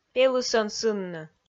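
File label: "пелысӧн сынны", Komi, female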